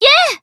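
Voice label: Voice Emotion Call Name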